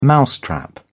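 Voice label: "mousetrap", British English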